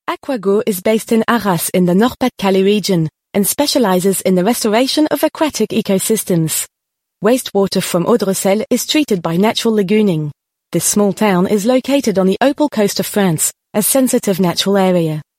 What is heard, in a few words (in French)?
Institutionnel Informatif